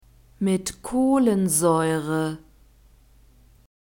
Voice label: "mit kohlensaeure", german; soft drinks new words

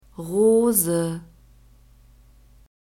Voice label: "Rose", German; nouns gender feminine

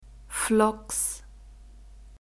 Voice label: "Phlox", German; nouns gender feminine

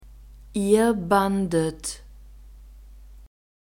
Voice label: "ihr bandet", German; verbs past tense irregular

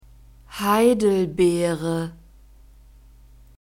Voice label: "heidelbeere", German; fruits

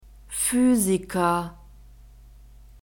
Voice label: "Physiker", German; masculine

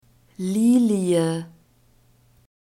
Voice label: "lilie", german; nouns gender feminine

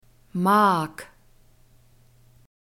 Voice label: "mag", german

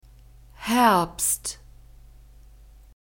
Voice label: "herbst", German; masculine

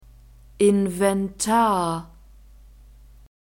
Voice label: "inventar", German

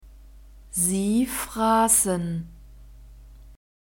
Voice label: "sie frassen", German; verbs past tense irregular

